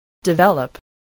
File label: "develop", english spelling